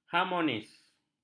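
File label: "harmonis", Malay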